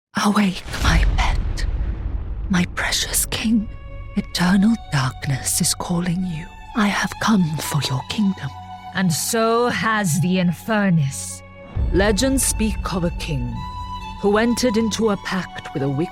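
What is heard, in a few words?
English, character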